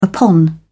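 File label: "upon", British English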